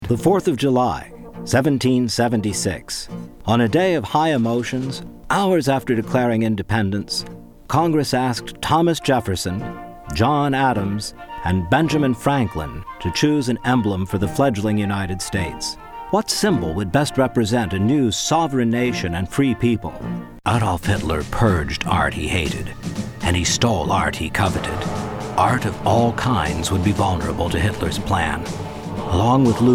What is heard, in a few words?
Narration